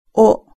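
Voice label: "o", Japanese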